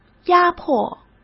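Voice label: "压迫", Mandarin Chinese; hsk; chinese-flashcards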